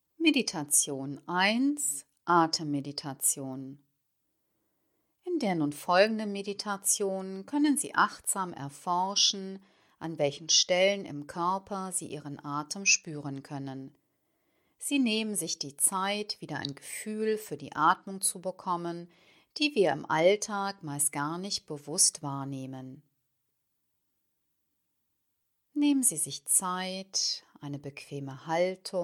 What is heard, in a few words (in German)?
Atemmeditation